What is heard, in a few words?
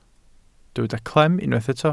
"clem", Welsh